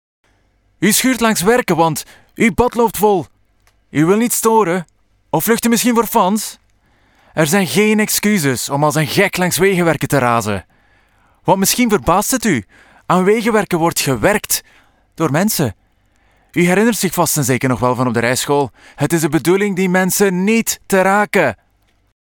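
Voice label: Dutch Commercial Flemish; GoLocalise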